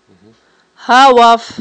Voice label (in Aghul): "гьаваф", Aghul